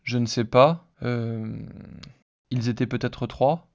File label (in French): hesitation synthese basique